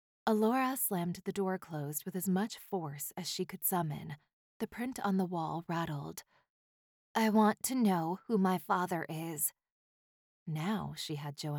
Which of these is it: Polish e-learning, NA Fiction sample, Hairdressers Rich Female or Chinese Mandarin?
NA Fiction sample